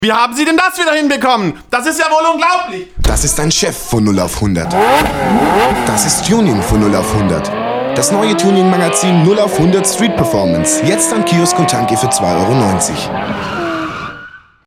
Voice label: Radiospot; 0-100 Streetperformance